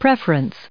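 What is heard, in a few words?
"preference", us English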